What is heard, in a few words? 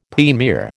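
"premier", American English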